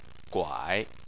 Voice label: "guai3", Chinese Phonetics